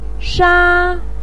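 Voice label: "sha", Chinese pinyin, first tone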